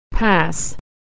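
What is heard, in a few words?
"pass", American English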